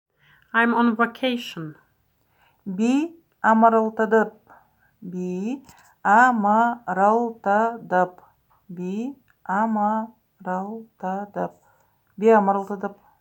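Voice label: Buryat I am on vacation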